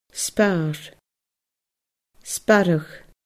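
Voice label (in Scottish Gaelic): "sparr sparradh", Scottish Gaelic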